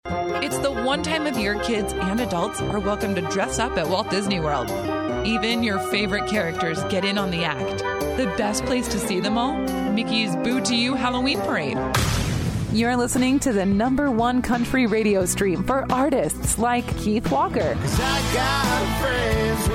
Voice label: english american